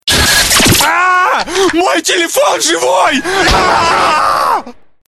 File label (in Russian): Ааа, телефон живой! Ааа! (очень страшный звонок); Реалтоны